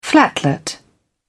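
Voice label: "flatlet", British English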